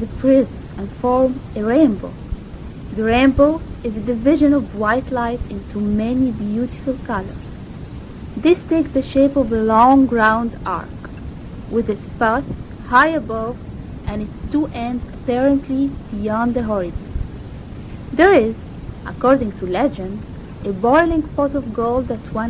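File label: egypt, International Dialects of English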